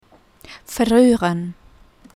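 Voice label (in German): "verruehren", German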